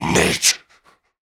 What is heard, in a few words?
Cannibal Male Taunt; Trunk Act One Monster